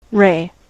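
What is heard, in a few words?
"ray", US English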